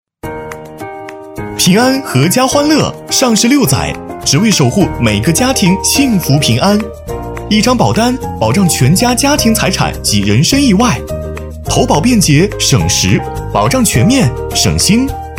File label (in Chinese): 男, 科普MG, 年轻活力; 大气主流; 科技时尚; 平安保险